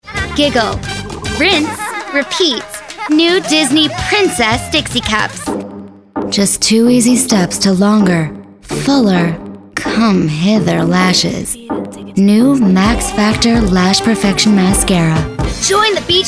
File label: commercial